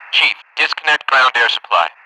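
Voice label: "request to disconnect ground air supply", English speech; DCS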